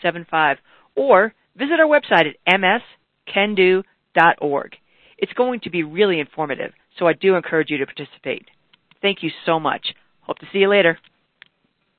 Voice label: voice call; navigating career change; national ms society telelearning